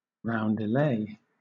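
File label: "roundelay", British English; pronunciations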